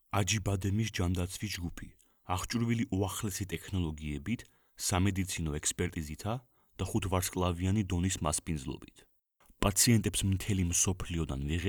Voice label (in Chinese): 格鲁吉亚语男